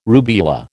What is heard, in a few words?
"rubeola", American